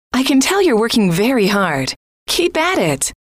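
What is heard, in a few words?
"I can tell you are working", female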